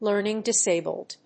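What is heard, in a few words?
"learning-disabled", English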